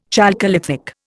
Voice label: "chalcolithic", Indian

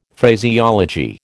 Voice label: "Phraseology", American

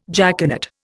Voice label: "jaconet", Indian

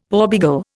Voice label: "porbeagle", Indian; Dictionary

